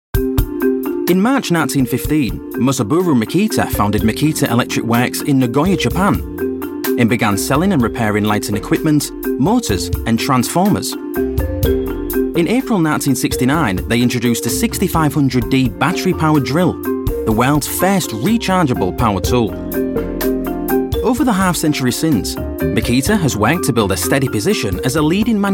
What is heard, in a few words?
yorkshire voiceover corporate